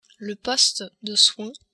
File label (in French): poste soins, french